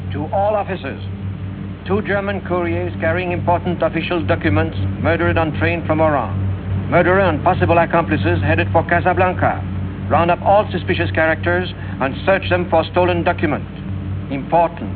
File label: announcement